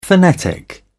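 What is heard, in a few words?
"phonetic", British English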